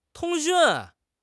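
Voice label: "通讯", Mandarin Chinese; HSK6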